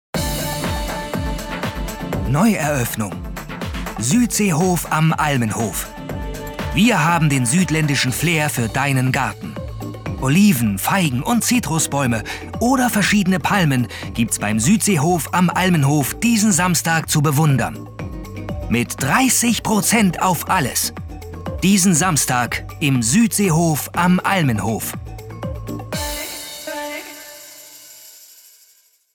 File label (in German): Werbung Suedsee; LOFT VOICES